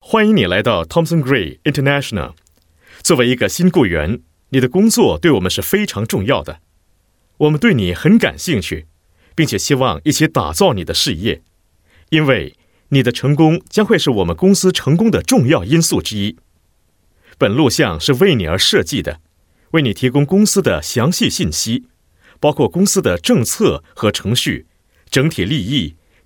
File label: Mandarin Corp Int, Male; China